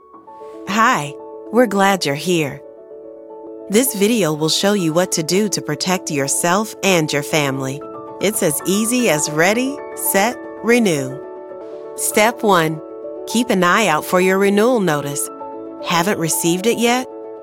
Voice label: E-Learning, voiceover for e-learning

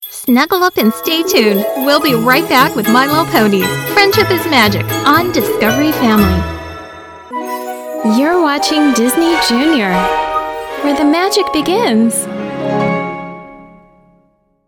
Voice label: Promo, voiceover

